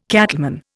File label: "cattleman", Indian